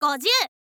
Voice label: "gozyuu", info girl voice

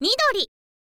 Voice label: "midori", girl; voice

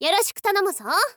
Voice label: princess greeting

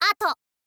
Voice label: "ato", girl

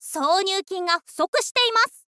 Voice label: "sounyuukin ga", info girl voice

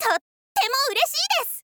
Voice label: "tottemouresiidesu", girl

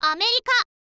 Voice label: "america", girl

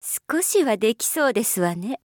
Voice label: witch start, voice

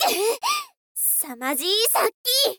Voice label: princess start, voice

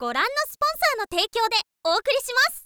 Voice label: "goran no sponsor", girl; voice

